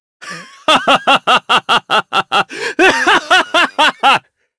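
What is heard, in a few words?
voice, Japanese; Kain; kingsraid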